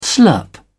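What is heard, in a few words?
"slurp", English, British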